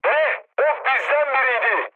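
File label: Turkish player killed ally, mood high, speech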